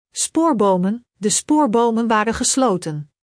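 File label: "spoorbomen", Dutch; Spelling Gr5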